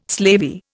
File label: "slavey", Indian